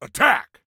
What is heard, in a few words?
Attack, Soldier Male